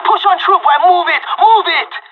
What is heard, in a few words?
radio lets go, pirate; Counter Strike Model Pack; CS:GO